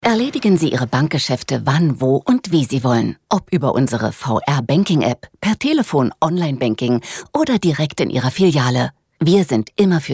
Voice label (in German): Funk Werbung Off; Volksbank